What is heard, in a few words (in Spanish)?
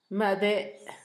bebé, Zapoteco, Asunción Ocotlan; Humano; INALI; Haspelmath